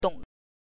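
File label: "dong", Mandarin Chinese, neutral tone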